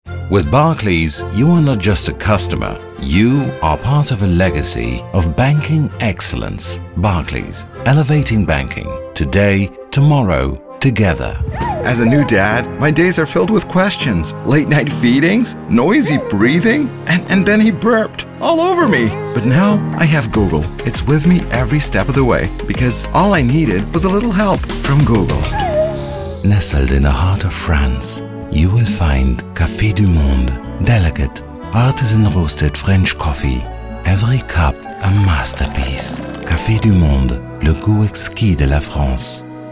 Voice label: English Commercial